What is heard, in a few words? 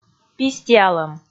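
"пистиалӧм", Komi, female; audio dict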